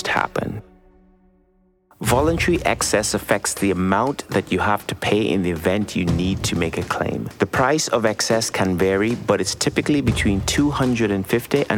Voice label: VOICE SHOWREEL INSURANCE DENTAL